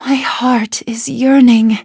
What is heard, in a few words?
level up, en; cookie run